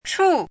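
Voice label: "chu4", Mandarin Chinese syllable, pinyin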